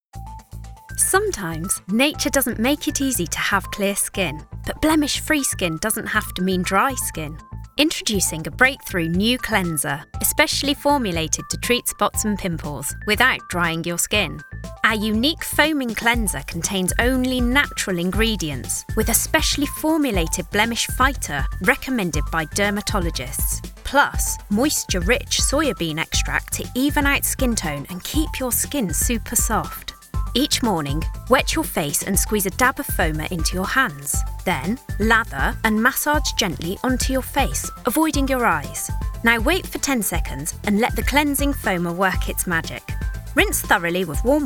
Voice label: Commercial